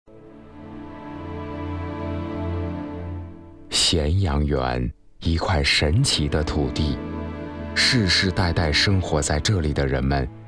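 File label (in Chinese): 纪录解说; 帝国肇始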